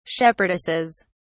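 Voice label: "shepherdesses", British English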